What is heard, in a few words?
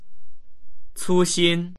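"cu1xin1", Chinese; 粗心; そそっかしい、おおざっぱな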